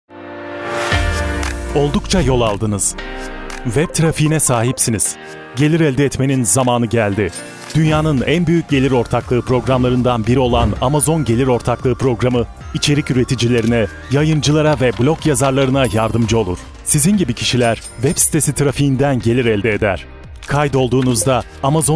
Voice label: turkish corporate videos

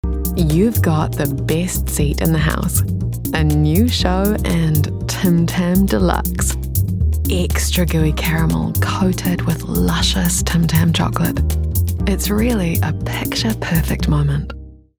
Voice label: english new zealand

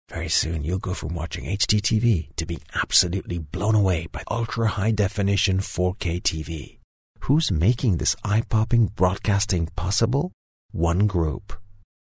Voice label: english international promos

